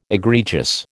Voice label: "egregious", American